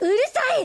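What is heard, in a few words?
"urusai", Japanese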